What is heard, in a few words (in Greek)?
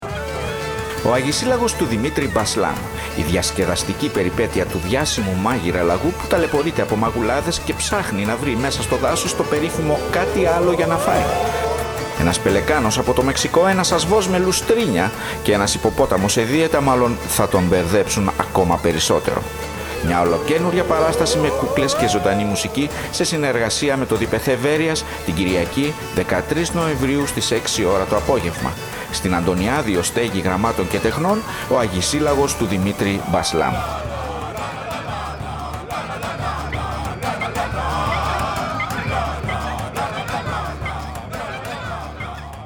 radio spot; Βέροια